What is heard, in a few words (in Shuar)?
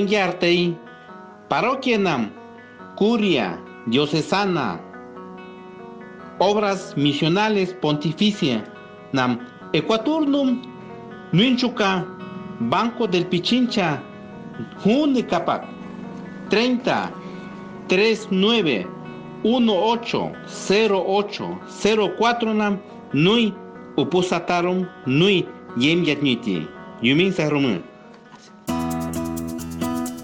Domund shuar audio; MDomund 2019